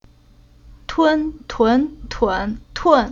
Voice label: "Tun", Chinese